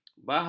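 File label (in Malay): "bahawa", Malay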